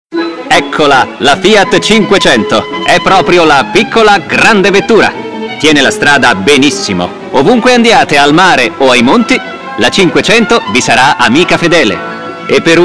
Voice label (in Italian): spk anni 40; fiat 500